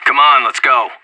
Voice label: radio follow me, anarchist